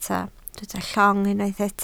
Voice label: "llong", Welsh